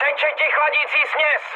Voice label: damaged water, speech cze, mood high; War Thunder